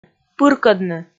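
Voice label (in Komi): "пыркӧдны", Komi, female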